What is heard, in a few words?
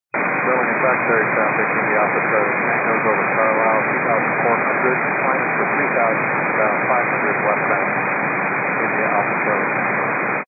122 925MHz, scanner radio